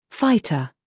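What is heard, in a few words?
"scandal", American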